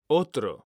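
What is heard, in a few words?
"otro", Spanish, male; mekanta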